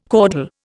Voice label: "caudal", Indian